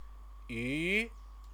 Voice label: "yu", Chinese; Chinese lessons

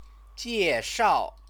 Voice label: "jieshao", Chinese

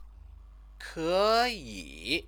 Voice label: "keyi", Chinese; Chinese lessons

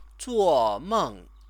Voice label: "zuomeng", Chinese

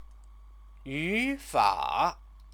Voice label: "yufa", Chinese; 语 法; grammar; noun

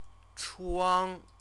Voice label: "chuang", Chinese; CTCFL; University of Oxford

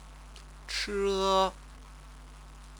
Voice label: "che", Chinese

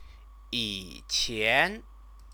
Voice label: "yiqian", Chinese; 以 前; before, in the past; time word

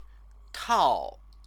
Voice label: "tao", Chinese

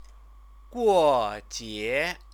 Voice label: "guojie", Chinese; Chinese lessons

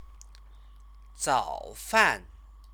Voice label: "zaofan", Chinese; 早 饭; breakfast; noun; Chinese lessons

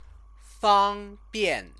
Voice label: "fangbian", Chinese; Chinese lessons

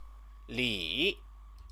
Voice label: "li", Chinese

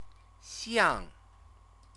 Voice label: "xiang", Chinese; CTCFL; University of Oxford

